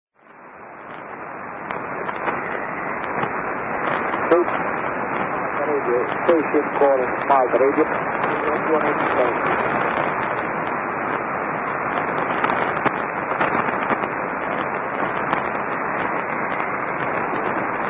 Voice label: malta radio (usb)